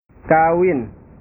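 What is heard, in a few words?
"kawin", Indonesian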